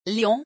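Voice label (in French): "lion", French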